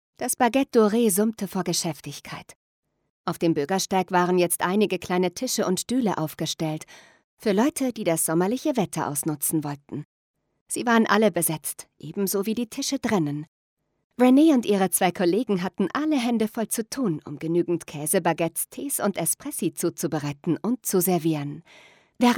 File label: Audiobook